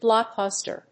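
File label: "blockbuster", English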